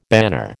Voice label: "banner", American

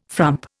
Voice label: "frump", Indian

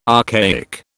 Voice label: "archaic", British

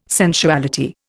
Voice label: "sensuality", Indian

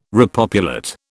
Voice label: "repopulate", British

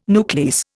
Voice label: "nuclease", Indian

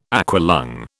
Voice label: "aqualung", British

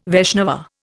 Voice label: "Vaishnava", Indian

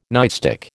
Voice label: "nightstick", American

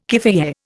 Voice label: "keffiyeh", Indian

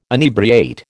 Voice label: "inebriate", American

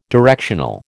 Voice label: "directional", American

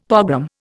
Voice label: "pogrom", Indian; Dictionary

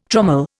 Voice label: "trommel", Indian

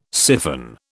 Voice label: "syphon", British

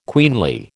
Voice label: "queenly", American

